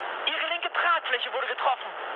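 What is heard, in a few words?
German left wing mood med, speech; War Thunder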